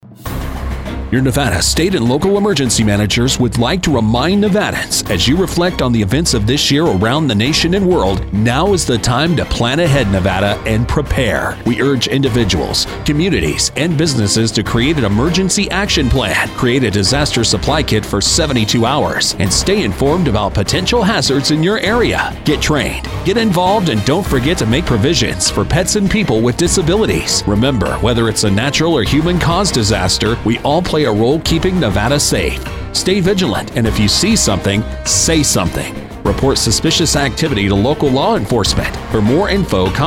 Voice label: December English Radio